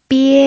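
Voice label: "bie", pinyin, first tone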